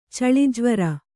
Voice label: "ಛಳಿಜ್ವರ", Kannada

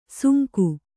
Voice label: "ಸುಂಕು", kannada

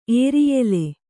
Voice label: "ಏರಿಯೆಲೆ", Kannada

